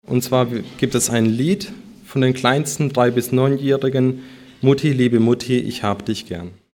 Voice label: Ansage

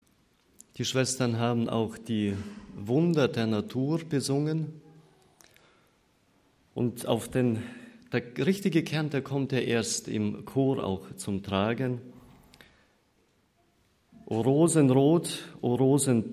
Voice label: Ansage

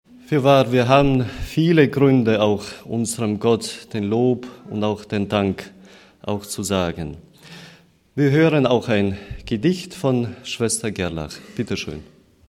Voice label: Ansprache

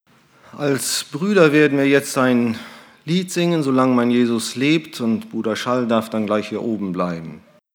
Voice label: Ansage; Fuerchte dich nicht

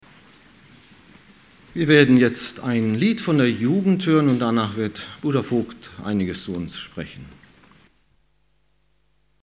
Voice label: Ansage; Ein Bild eines Missionaren

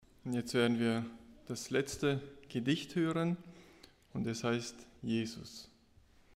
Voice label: Ansage Gedicht

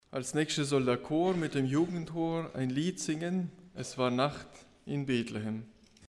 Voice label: Ansage Lied

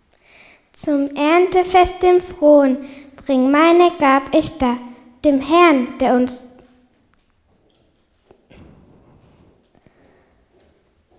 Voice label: Gedichte von Kindern

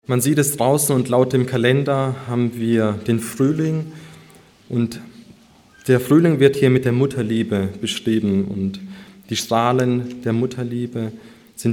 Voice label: Ansage

